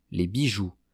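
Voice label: "les bijoux", French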